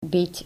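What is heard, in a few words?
"beet", Dutch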